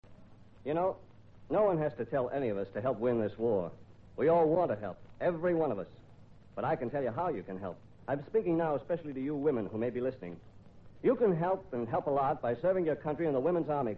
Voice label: Commercials Recruiting Psa Join The Wacs